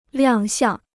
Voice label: "亮相", Chinese

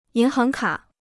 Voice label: "银行卡", Chinese

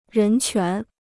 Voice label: "人权", Chinese

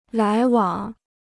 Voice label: "来往", Chinese

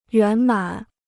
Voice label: "圆满", Chinese

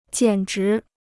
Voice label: "简直", Chinese

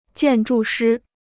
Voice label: "建筑师", Chinese

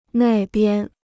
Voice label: "那边", Chinese

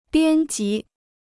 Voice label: "编辑", Chinese

